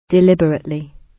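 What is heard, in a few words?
"deliberately", British English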